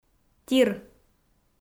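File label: "тир", Komi, female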